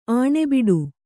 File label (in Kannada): "ಆಣೆಬಿಡು", Kannada